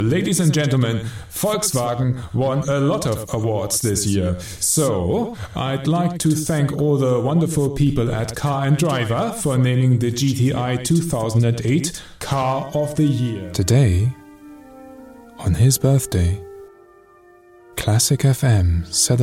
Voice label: english german accent